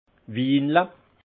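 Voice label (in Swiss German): "winele", alsace Haut-Rhin; vin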